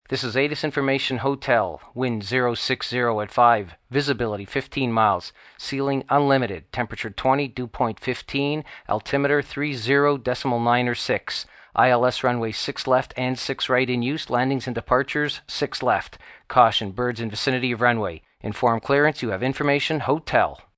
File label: aviation weather, ATIS weather report, male, with some processing, broadcasting; Airport (human voice speaking); Pa Announcement; VoiceBox